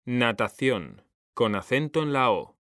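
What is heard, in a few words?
"natación", Spanish; mekanta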